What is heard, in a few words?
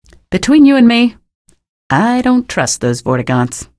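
citizen remarks, female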